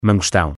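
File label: "mangostão", Portuguese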